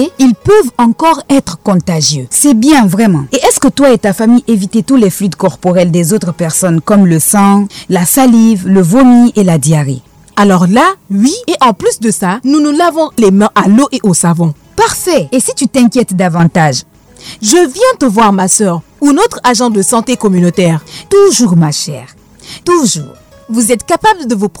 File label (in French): spot ebola pour femmes francais